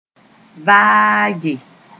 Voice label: "vaage", moore

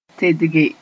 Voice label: "tedge", moore; to change sth.

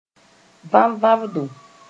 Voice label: "vãvãbdo", moore

